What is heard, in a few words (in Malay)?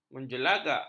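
"menjelangak", Malay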